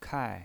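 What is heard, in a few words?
"kai", fourth tone, pinyin voice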